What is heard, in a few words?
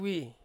"wi", Ansus; mountain